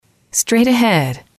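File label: "straight ahead", English, female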